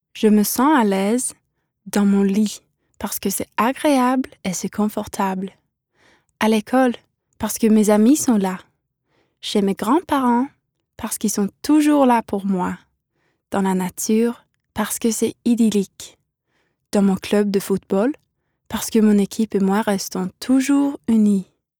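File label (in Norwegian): no fransk, Norwegian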